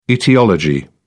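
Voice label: "aetiology", English, uk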